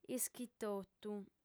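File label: "ischitotu", female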